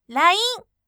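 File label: "line", bright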